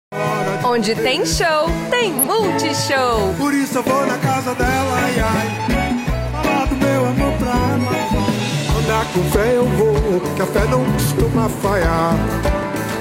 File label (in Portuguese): Locutora; MSW Gilberto Gil